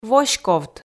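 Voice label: "воськовт", Komi, female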